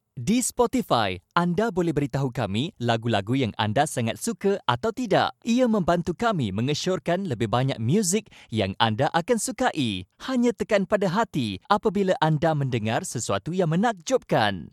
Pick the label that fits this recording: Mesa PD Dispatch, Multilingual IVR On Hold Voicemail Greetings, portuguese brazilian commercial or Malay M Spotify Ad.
Malay M Spotify Ad